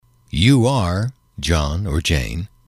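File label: Are Informal, english, male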